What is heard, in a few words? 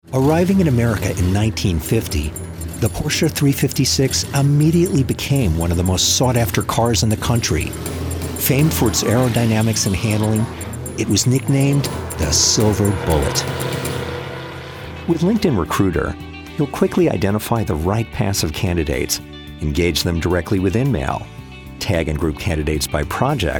Narration